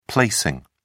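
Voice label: "placing", British English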